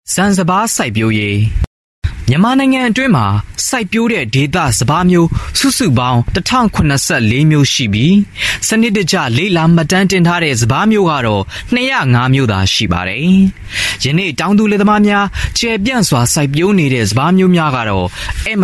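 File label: m Burmese News Reading, male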